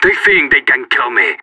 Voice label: radio taking fire; Counter Strike; CS:GO; separatist